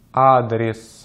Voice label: "адрес", Russian

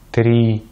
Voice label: "три", Russian; Vocales tónicas en ruso; easypronunciation

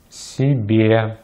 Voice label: "себе", Russian; easypronunciation

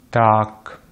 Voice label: "так", Russian; Consonantes en ruso; easypronunciation

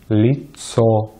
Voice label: "лицо", Russian; easypronunciation

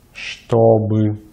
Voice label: "чтобы", Russian

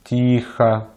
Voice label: "тихо", Russian

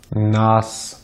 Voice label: "нас", Russian; Consonantes en ruso; easypronunciation

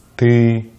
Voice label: "ты", Russian; easypronunciation

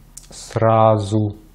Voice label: "сразу", Russian; easypronunciation

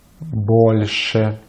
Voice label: "больше", Russian; easypronunciation

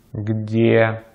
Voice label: "где", Russian

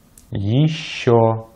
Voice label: "ещё", Russian

